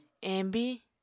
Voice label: "embiñ", apatani

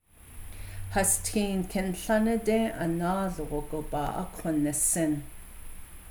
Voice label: navajo clauses; I'm aware of the man having walked back fro

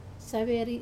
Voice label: "saveri", large shell, wamesa

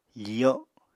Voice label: "fiyo", Apatani

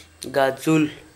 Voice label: bracelet, Gta, Dialect: Hill; gadʒul

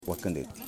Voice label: "Snakes", Yimas

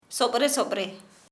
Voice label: "quickly", Kharia

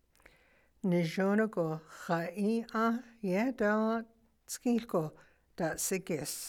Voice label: navajo

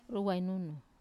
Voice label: "ruwainunu", spirit, wamesa

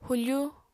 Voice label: "hufu", apatani

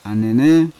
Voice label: "anene", Yawa; grandmother; unat

